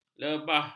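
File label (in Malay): "lebah", Malay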